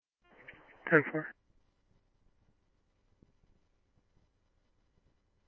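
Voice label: scanner, Phoenix Police Department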